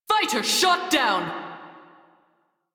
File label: Crew Gun Kill Fighter, Female; Railjack Crew; New Loka